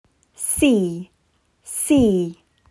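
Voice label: "Sea", English word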